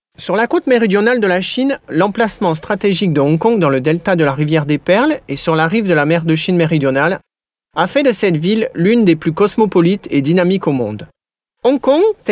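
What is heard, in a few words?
French male corporate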